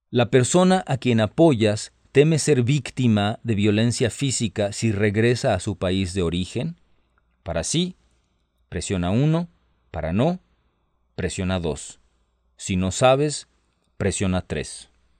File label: Spanish, hotline